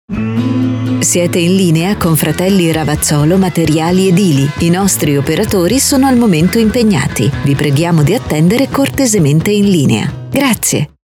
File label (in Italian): Segreteria Telefonica; Fratelli Ravazzolo